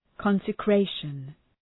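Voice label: "consecration", English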